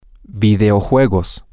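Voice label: Spanish, video games, male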